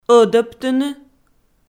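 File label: "ӧдӧбтыны", Komi, female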